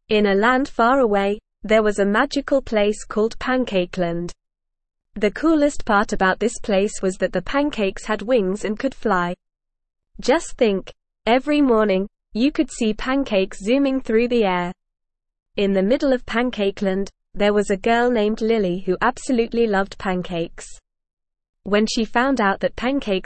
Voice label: ESL Short Stories for Kids Upper Intermediate NORMAL Reading; The Land of Flying Pancakes